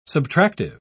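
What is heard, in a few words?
"subtractive", British English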